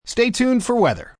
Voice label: Tuned 4 Weather M, Broadcast, announcer, breakaway, male